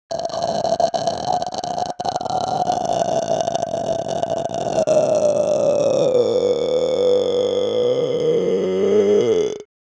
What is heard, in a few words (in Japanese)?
怨念の声